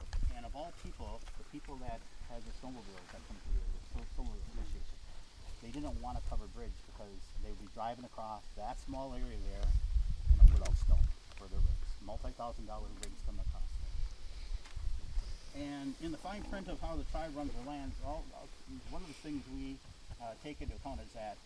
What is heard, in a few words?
group talk; Hochunk; Walkin Kickapoo Reserve